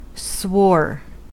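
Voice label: "swore", American English; pronunciation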